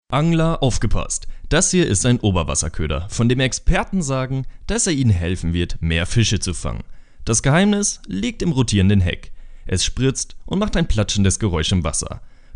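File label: German male; lektoring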